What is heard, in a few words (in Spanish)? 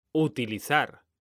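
"utilizar", Spanish; mekanta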